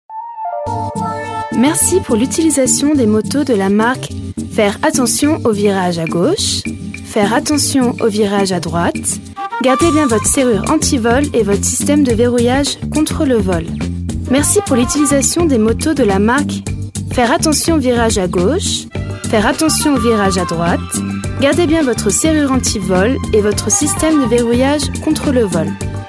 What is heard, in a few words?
french girl